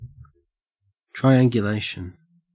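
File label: "triangulation", Australian English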